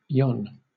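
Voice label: "yon", British English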